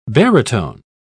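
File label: "barytone", British English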